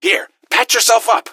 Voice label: health, gasmask; scmod

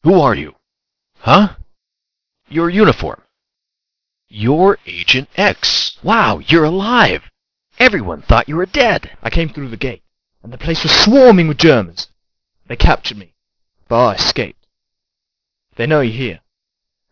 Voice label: Full convo

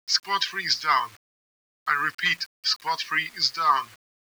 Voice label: intro radio; soldiers

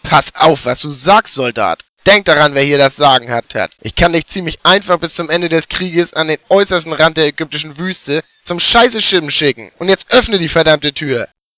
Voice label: dialog

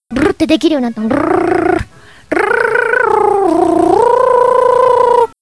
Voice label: chat

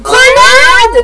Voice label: grenade, radio

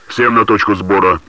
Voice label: all units

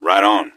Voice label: answer, gasmask; scmod

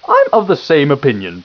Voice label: upper answer, upperclass

